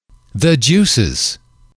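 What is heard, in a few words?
"the juices", English, male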